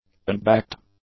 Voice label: "unbacked", English; adjectives